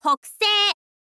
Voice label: info girl